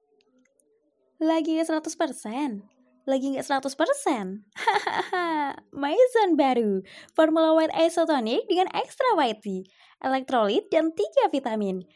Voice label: Bahasa